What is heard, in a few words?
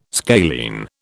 "scalene", British